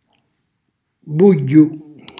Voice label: "bugghiu", Calabrese; insulso

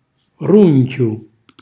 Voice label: "runchju", Calabrese; cucina calabrese

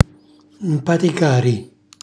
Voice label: "mpaticari", Calabrese; calpestare; cucina calabrese; Glossario

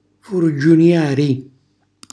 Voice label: "furgiuniari", Calabrese

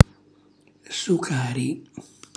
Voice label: "sucari", Calabrese; cucina calabrese

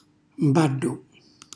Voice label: "mballu", Calabrese; cucina calabrese; Glossario

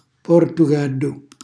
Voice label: "portugallu", Calabrese; cucina calabrese; Glossario

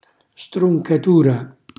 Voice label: "struncatura", Calabrese; cucina calabrese

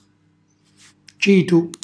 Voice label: "citu", Calabrese

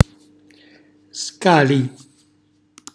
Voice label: "scali", Calabrese; cucina calabrese

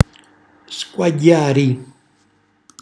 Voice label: "scuagghiari", Calabrese; cucina calabrese

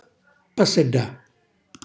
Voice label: "passillà", Calabrese; cucina calabrese

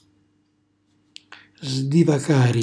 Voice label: "sdivacari", Calabrese; cucina calabrese

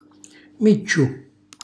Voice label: "micciu", Calabrese; moccolo, stoppino; cucina calabrese; Glossario

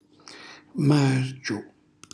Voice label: "margiu", Calabrese; cucinacalabrese; glossario

